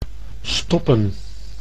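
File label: "stoppen", Dutch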